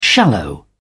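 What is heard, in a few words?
"shallow", British English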